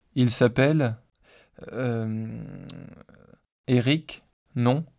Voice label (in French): hesitation synthese basique